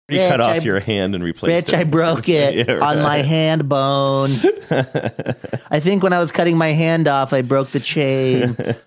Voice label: talking in high pitched whiny voice breaking chainsaw